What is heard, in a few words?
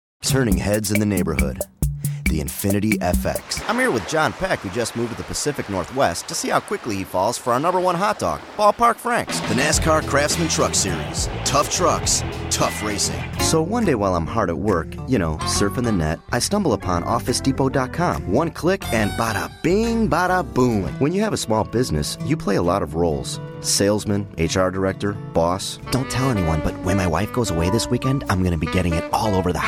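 American commercial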